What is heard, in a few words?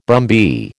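"brumby", American English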